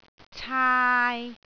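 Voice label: "caai3", Cantonese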